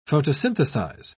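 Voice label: "photosynthesize", British English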